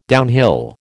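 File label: "downhill", American English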